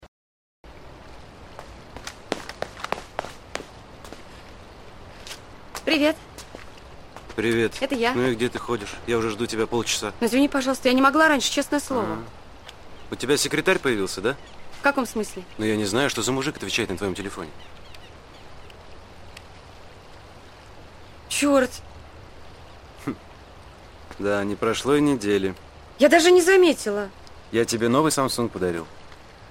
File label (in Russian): dialog; piter fm